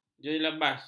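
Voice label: "jelabas", Malay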